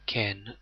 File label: tzere niqqud hebrew vowels